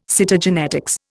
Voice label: "Cytogenetics", Indian

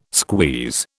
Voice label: "squeeze", British

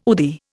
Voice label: "UDI", Indian

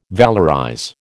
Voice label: "valorize", American

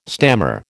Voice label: "stammer", American

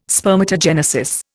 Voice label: "spermatogenesis", Indian

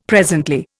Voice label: "presently", Indian; Dictionary

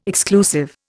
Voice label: "exclusive", Indian

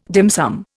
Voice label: "dim sum", Indian

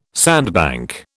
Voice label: "sandbank", British

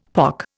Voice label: "pock", Indian; Dictionary

